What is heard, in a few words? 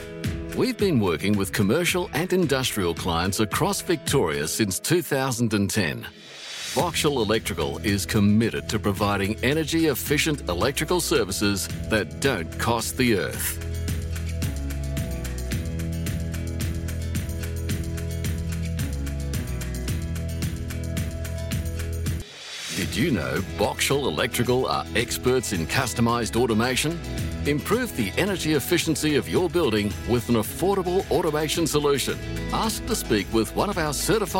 On Hold Messaging